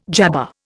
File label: "jabber", Indian